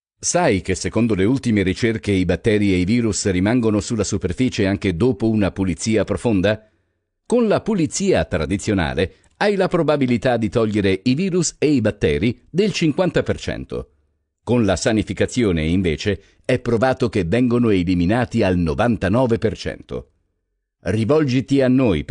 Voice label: 意大利语男 外语 小语种

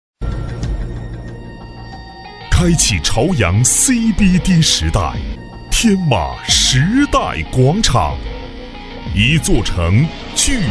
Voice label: 男国 宣传片 地产 大气; 朝阳

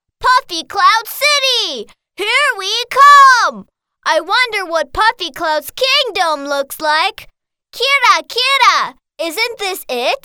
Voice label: 外籍女英 外语 美式英语 萌萌boy

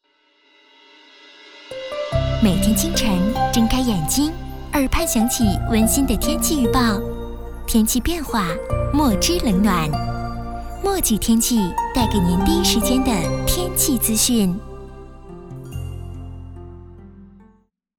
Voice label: 女 广告 互联网 甜美; 墨迹天气

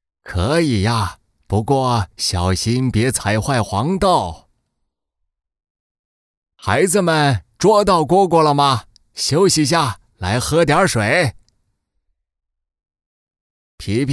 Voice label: 男 动画 角色 角色老年

